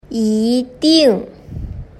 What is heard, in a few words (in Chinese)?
"yiding", Chinese